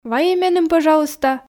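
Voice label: "вайӧй меным пӧжалуйста", Komi, female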